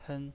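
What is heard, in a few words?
"pen" first tone, pinyin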